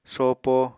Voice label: "sopo", Apatani

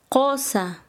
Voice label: "esposo", Quechua Chanka

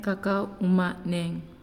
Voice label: "Black", Naasioi; unitech

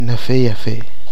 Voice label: "nɨfaiafe", Nafe (Kwamera); reef passage, channel

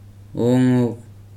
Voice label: "woman", Weri

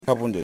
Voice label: "Frogs", Yimas

